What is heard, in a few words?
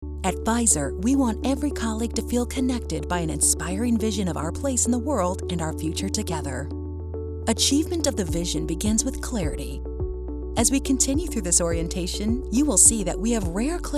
Corporate Narration